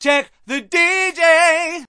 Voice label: diva check the dj, cheesy divas